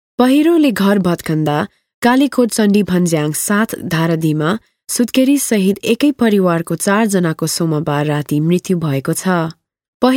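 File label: Dutch, female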